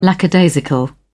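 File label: "lackadaisical", British English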